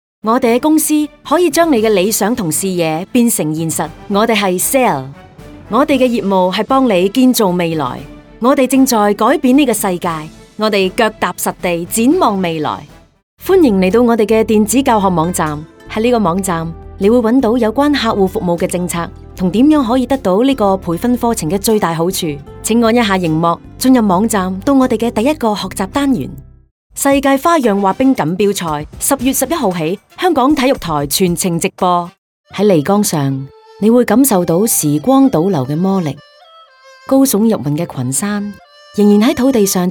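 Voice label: Cantonese Narration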